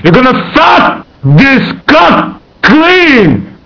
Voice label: mumble; svencoop; cracklife; gman